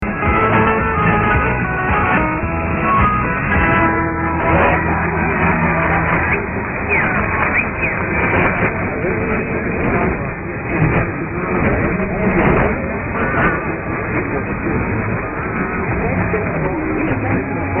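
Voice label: most powerful announcement; 980; wcub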